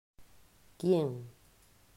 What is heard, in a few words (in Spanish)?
"Quien", pronunciacion; mausschool